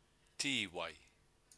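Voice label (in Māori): "tiwai", Māori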